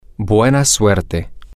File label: good luck, spanish, M